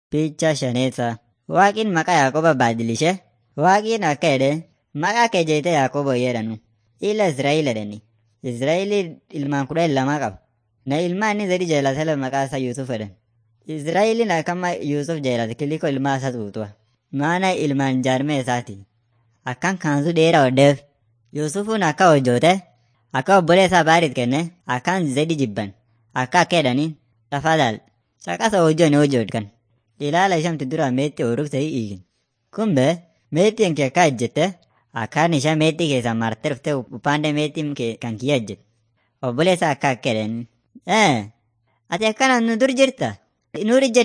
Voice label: afan munyoyaya; mighty men of god